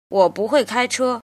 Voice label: "jin", Chinese